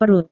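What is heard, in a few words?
"perut", Indonesian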